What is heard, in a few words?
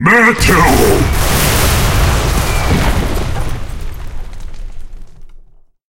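skill meteor enemy, Japanese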